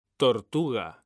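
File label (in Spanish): "tortuga", Spanish, male; mekanta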